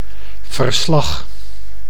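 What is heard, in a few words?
"verslag", Dutch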